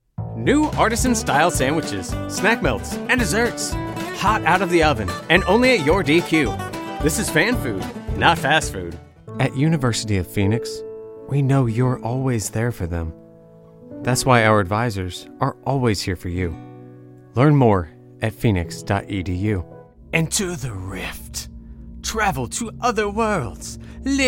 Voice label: Commercial

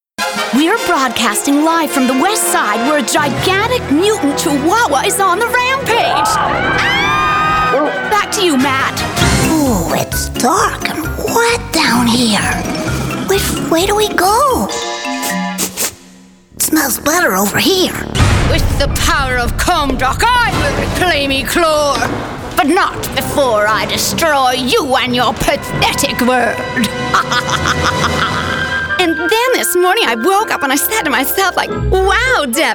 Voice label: animation

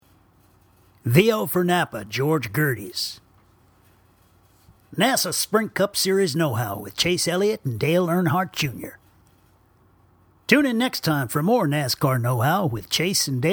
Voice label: commercial

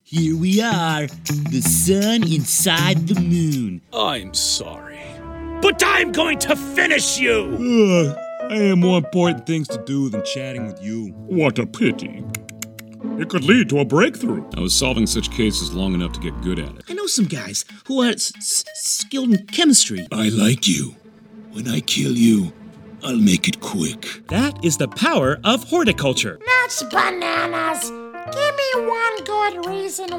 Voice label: Animation

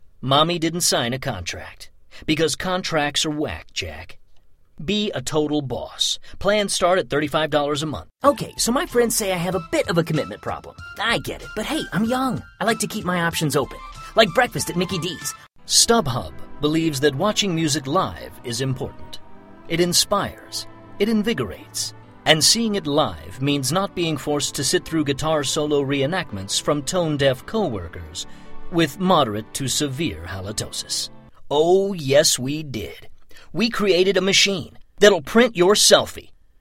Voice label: Commercial

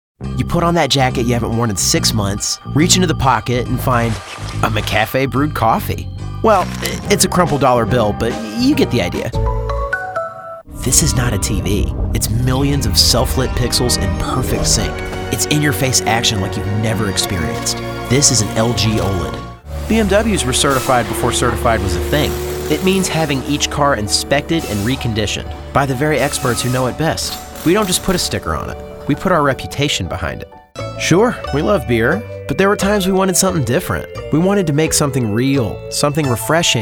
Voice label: Commercial

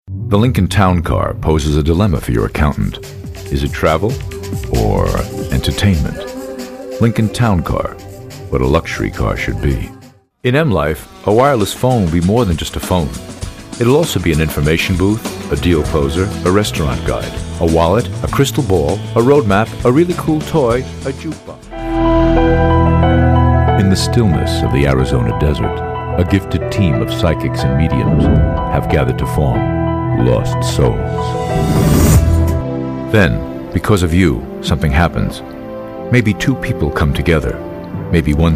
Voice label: Commercial

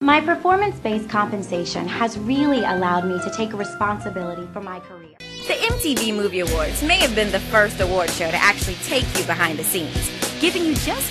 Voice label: Commercial

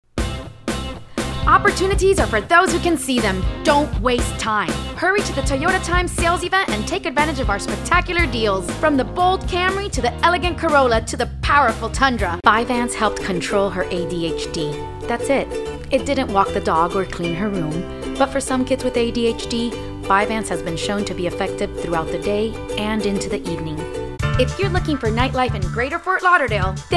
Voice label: Commercial

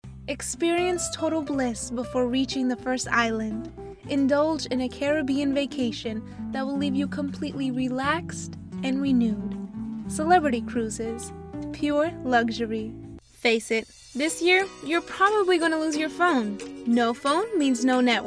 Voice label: Commercial, vo

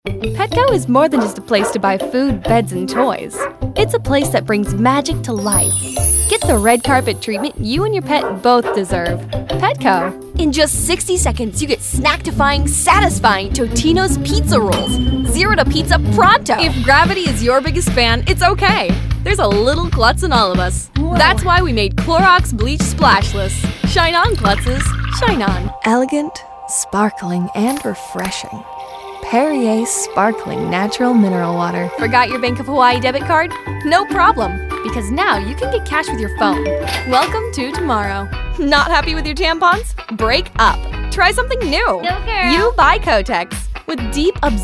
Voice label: Commercial

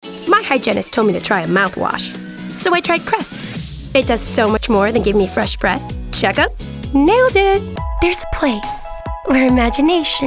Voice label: Commercial

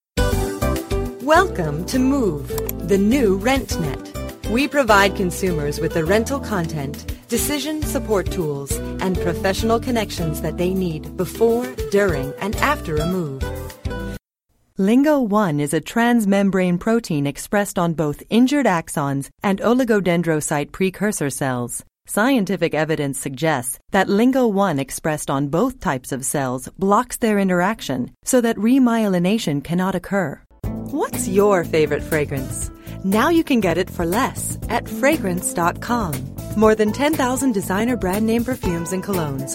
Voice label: Narration

